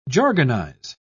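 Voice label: "jargonize", British English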